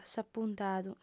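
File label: "s'apuntadu", Sardinian, female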